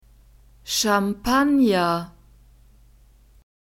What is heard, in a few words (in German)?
"Champagner", German